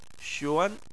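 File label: "xuan", Chinese, second tone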